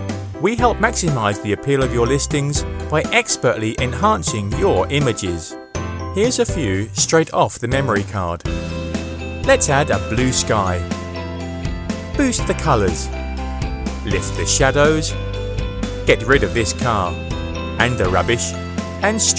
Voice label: Photo Explainer